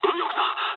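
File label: right wing mood low, speech japanese; War Thunder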